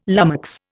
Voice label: "lummox", Indian